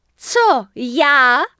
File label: Night Elf Female Hello; wowpreklad; czwow; NightElfVocalFemale